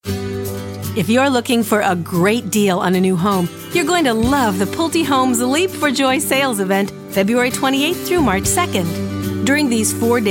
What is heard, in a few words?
Commercial, Female voices